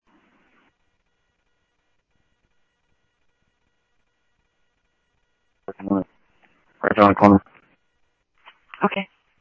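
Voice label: Simulcast Chandler Patrol A, scanner; Chandler-Ptrl A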